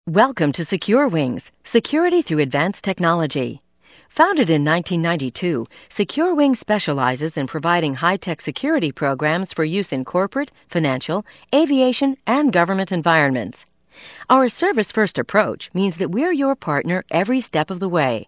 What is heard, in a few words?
narration